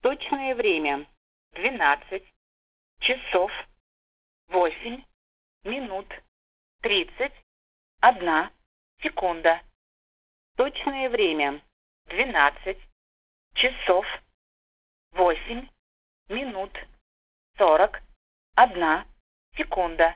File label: Russian time service; Zagranica